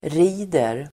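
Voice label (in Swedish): "rider", Swedish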